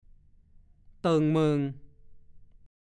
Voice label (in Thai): "เติ่งเหมิ่ง", ภาษาโคราช; 1. เห็นทนโท่อยู่บนที่สูงเรียกสิ่งที่มีลักษณะเกลี้ยงอย่างจะแจ้ง